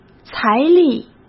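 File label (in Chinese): "财力", Mandarin Chinese; hsk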